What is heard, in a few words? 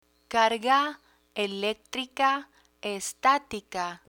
"carga electrica estatica", Spanish; technology vocabulary; learn spanish